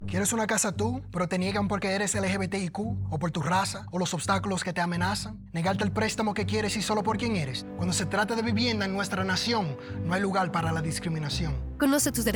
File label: Spoken Word Radio Spanish; NFHA-HUD